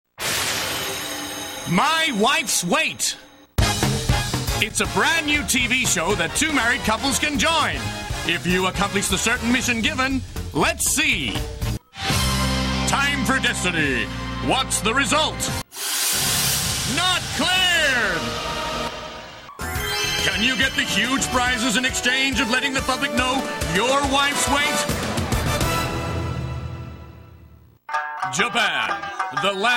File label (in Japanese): ハイテンションアメリカンDJ